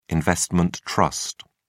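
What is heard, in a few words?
"investment trust", British English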